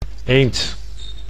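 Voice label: "eend", Dutch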